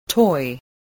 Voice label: "toy", voice

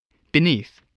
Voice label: "beneath", English

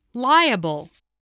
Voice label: "liable", spelling

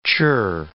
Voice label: "chirr", English

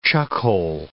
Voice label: "chuckhole", English

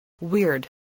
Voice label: "weird", spelling

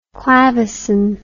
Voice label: "clavecin", English

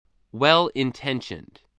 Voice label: "well-intentioned", spelling

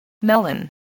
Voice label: "melon", voice, spelling

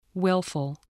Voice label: "willful", voice, english

